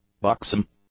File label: "buxom", English; adjectives